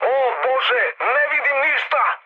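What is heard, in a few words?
Serbian searchlite mood high; speech; War Thunder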